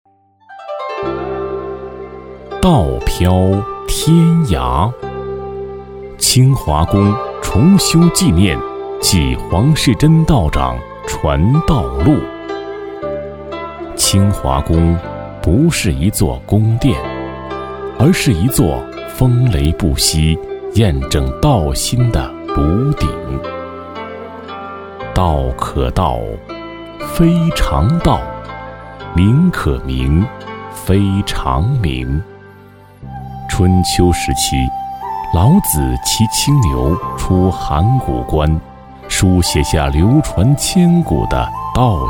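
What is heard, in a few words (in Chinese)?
男 纪录片（人文地理）; 纪录片 道飘天涯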